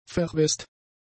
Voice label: "verwest", German; adjectives